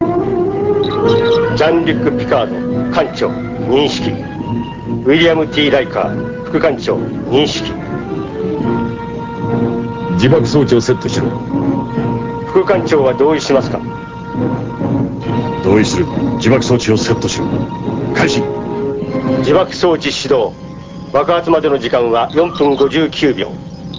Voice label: com voice man; tng